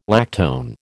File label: "lactone", American